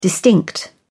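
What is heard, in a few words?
"distinct", British English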